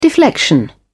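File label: "deflection", British English, dictionary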